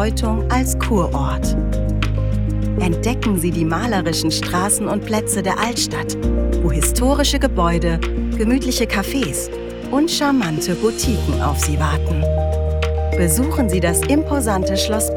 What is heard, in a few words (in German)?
audioguide wiesbaden